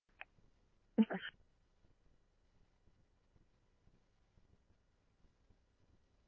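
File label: scanner, Phoenix Police Department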